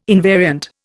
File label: "invariant", Indian